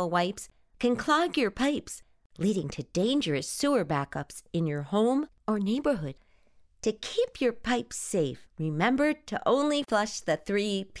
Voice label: Animation PSA Toilet Flushing